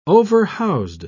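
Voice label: "overhoused", British English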